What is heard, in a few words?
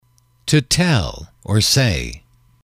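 "to tell, say", English, male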